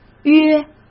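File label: "约", Mandarin Chinese; hsk; chinese-flashcards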